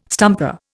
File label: "stumper", Indian